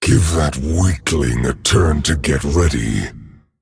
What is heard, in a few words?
Male Dragon; DRG; ERROR SUMMON SICKNESS; 风暴巨龙迦拉克隆（英雄）; 随从需准备 Minion not ready; ▶给那个小东西一个回合去准备。 ▶Give that weakling a turn to get ready.